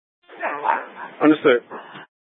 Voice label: Light Rail Ops, scanner; Light Rail Ops 1

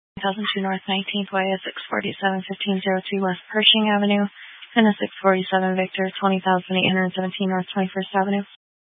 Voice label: Phoenix Police Dispatch; Regional Wireless Cooperative (RWC) - Thompson Peak High; 200 Black Mountain Precinct

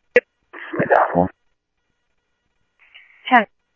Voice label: Sheriff, scanner audio

